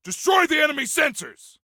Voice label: Attack Sensors, Soldier Male; Soldier Male 03